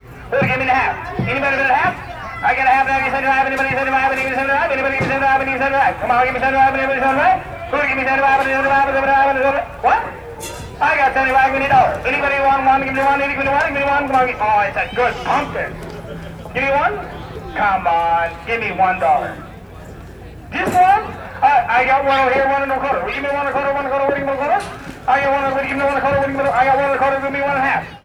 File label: Tempo Auctioneer